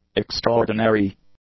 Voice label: "extraordinary", english; adjectives

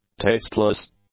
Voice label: "tasteless", English; adjectives

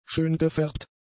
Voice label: "schöngefärbt", german; adjectives

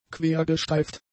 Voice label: "quergestreift", German; adjectives

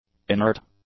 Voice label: "inert", English; adjectives

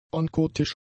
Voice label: "onkotisch", German; adjectives

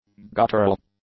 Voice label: "guttural", english; adjectives

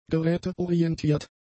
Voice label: "geräteorientiert", German; adjectives

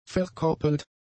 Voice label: "verkoppelt", German; adjectives

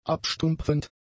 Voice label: "abstumpfend", german; adjectives

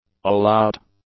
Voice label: "all-out", English; adjectives

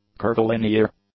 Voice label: "curvilinear", English; adjectives

